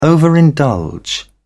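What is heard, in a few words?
"overindulge", British English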